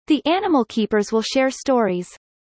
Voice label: fast; four seasons